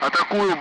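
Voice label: Speech Sound Russian Common Allied Flight; engaging; DCS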